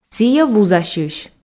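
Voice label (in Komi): сійӧ вузасьысь, Komi, female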